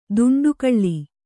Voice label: "ದಂಡೆಗೊಳ್ಳು", Kannada